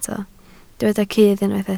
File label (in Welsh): "cudd", Welsh